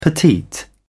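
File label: "petite", English, British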